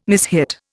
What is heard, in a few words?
"mishit", Indian